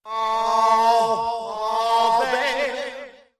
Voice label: "oh oh baby", voice; ooh ahh

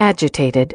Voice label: agitated

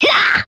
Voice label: "ya" cartoon; ooh ahh

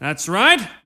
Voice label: that's right male

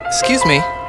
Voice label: "excuse me", Voice; expletives

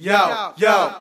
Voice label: "yo yo", Voice; ooh ahh

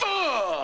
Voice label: "uh" scratch; ooh ahh

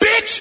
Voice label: "bitch", expletives

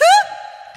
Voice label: "hai", Voice; ooh ahh

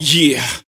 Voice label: "yeah" smooth, voice; ooh ahh

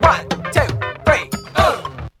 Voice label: "1 2 3 uh" disco; numbers and letters

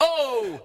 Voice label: "oh" male

